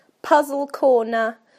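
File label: "puzzle corner", British English; PictureDictionary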